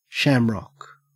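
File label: "shamrock", Australian English; pronunciations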